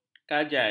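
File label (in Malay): "kajai", Malay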